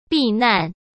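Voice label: "避难", Mandarin Chinese; hsk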